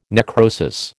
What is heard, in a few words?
"necrosis", American